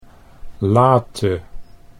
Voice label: "late", Dutch